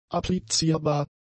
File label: "applizierbar", German; adjectives